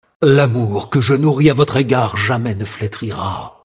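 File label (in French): Male Flirt, voix françaises; Sacrenuit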